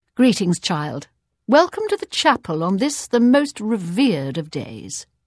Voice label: Holiday Greeting Imperial Female; Unused

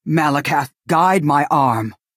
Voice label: female implore

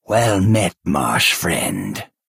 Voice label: male "hello"; Argonian

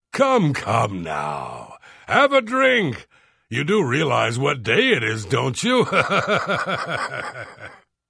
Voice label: Holiday Greeting Nord Male; Unused

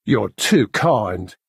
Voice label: Bosmer male thank you

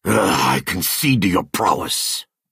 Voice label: Redguard male concede

